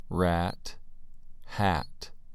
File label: "rat" "hat"